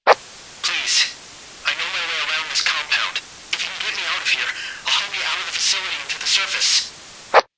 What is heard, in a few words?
Radio Help; SCP 035